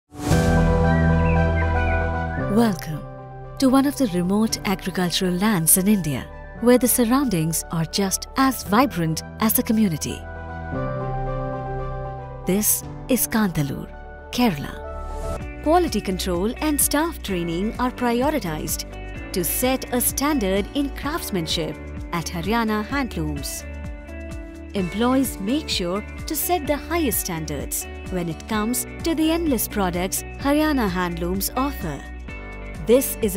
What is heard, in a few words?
English corporate